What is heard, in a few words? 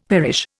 "perish", Indian; Dictionary